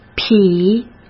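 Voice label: "匹", Mandarin Chinese; hsk; chinese-flashcards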